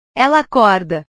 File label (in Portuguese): "ela acorda", portugues